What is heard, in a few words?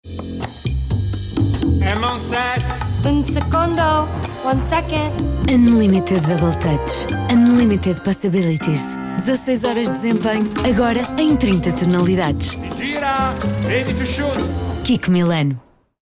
Spot